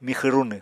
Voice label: "mixw runw", Apatani